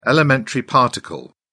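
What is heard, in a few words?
"elementary particle", British English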